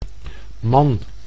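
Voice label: "man", Dutch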